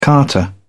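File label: "carter", English, British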